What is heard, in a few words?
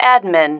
"admin", female